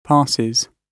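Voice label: "passes", British English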